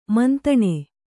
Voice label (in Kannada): "ಮಂತಣ", Kannada